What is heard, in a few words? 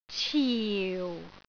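"ciu4", Cantonese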